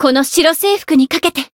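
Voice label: Voice growth, Japanese; hisumena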